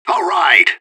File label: radio bot cheer; fbihrt